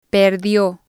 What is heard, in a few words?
"perdio", spanish